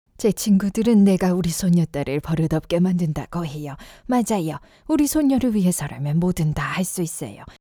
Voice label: grandma